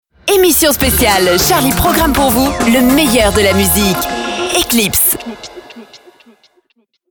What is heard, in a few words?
TV Sweeper, voix off; Radio Eclips; Charly Special